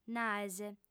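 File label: "naes" Sardinian, female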